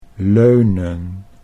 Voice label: "leunen", Dutch